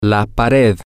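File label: wall, Spanish, male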